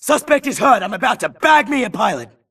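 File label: grunt detect Player Low Health; imc; Titanfall 2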